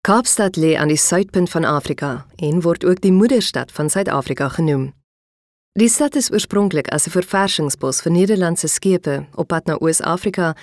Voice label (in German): Lesung afrikaans; Coetzee